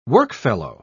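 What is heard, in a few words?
"workfellow", British English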